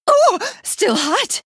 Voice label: Female Night Elf Attack